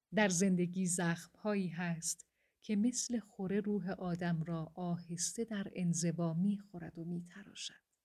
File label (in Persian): گله و شکایت, لحن گله و شکایت; متن برای تمرین لحن گله و شکایت; در زندگی زخم هایی هست كه مثل خوره روح آدم را آهسته در انزوا می خورد و می تراشد. (صادق هدایت)